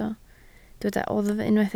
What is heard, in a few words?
"oddf", Welsh